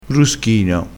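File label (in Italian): "bruschino", dialettale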